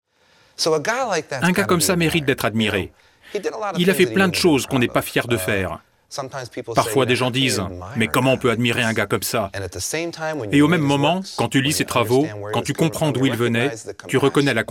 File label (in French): voix off; france5; kerouac